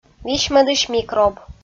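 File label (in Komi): "висьмӧдысь микроб", Komi, female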